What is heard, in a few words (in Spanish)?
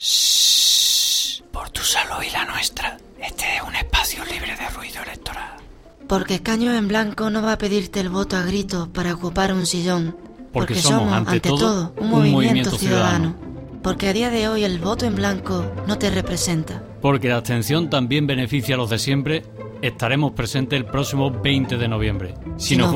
radio Espacio libre de ruido electoral